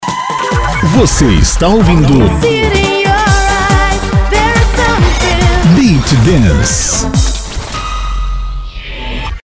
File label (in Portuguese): Vinheta Falada